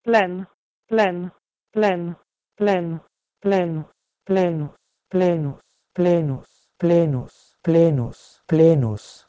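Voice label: Proto-Indo-European pleh1n to Latin plenus; eastern origins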